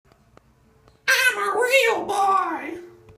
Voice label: real boy